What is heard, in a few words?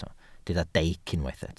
"deic", welsh